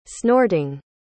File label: "snorting", pronounce